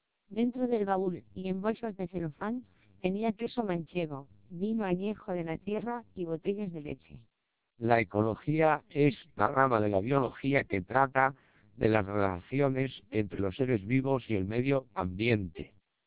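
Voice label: Spanish speech, TWELP 700 bps vocoder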